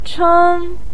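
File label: "cheng1", Mandarin; 爱; ài chēng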